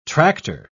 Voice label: "tractor", pronounce